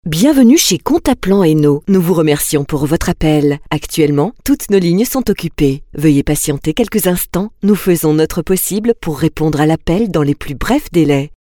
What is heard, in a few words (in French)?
message accueil; Comptaplan